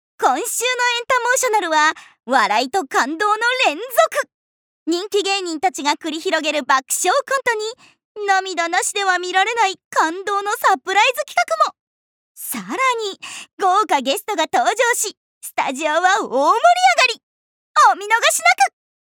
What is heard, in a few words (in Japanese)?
ナレーション